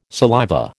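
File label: "saliva", American English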